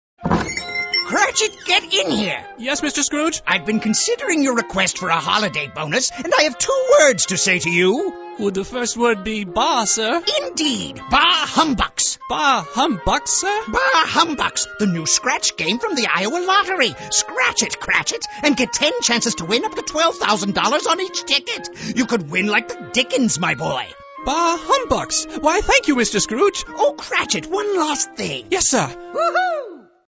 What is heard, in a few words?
Radio Spot; Bah Humbucks